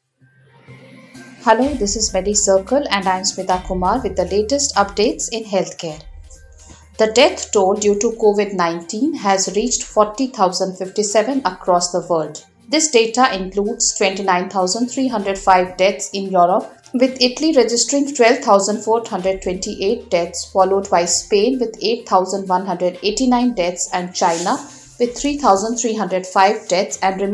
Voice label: english news